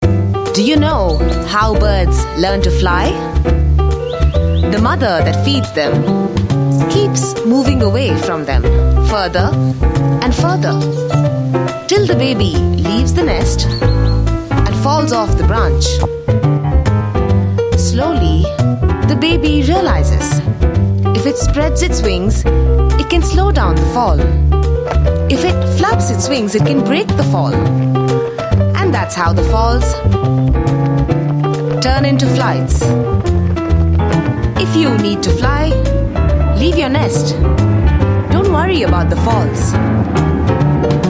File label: Indian Hindi, Female; Life Lessons